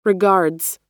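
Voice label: "regards", American English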